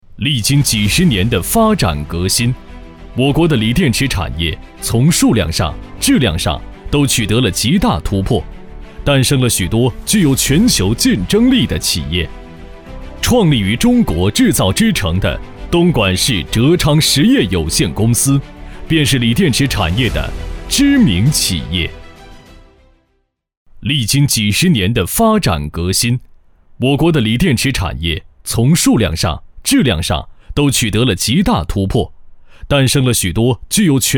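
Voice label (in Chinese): 男【宣传片】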